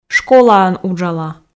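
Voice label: школаын уджала, Komi, female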